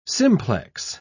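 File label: "simplex", British English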